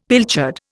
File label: "pilchard", Indian; Dictionary